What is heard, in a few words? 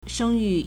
"sheng1yu4", Chinese